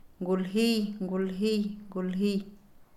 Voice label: flauta, Pame; HablayLenguaje; INALI

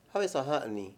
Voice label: "alguien", Mayo; Palabras con distintas funciones

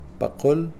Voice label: doblar, Kakchikel

